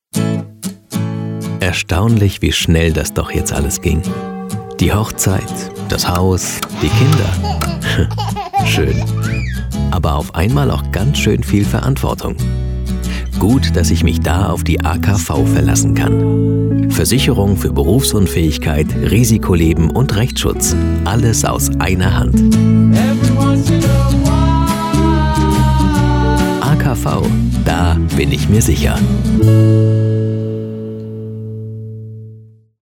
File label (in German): sprecher werbung nachdenklich